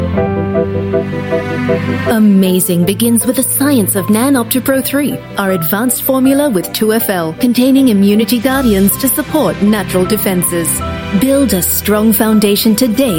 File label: Asian English Motherly